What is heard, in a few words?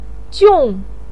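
"jiong", Mandarin Chinese, fourth tone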